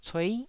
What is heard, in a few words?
"cuei9", Taiwanese syllable